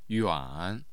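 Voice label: "yuan3", Mandarin Chinese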